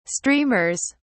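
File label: "streamers", English, British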